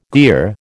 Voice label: "gear", American